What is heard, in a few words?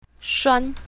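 "shuan1", pinyin, south, female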